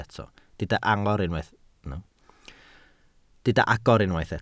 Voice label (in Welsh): "agor", Welsh